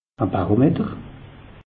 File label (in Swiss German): "barometer", alsace; meteo